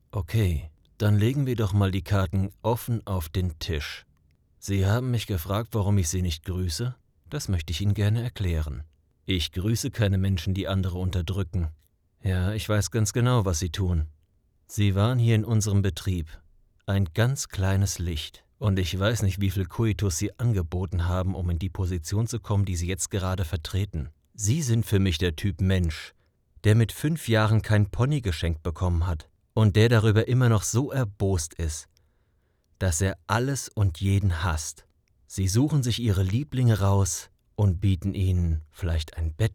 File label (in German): Herablassend